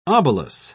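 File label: "obelus", British English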